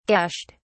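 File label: "gashed", British English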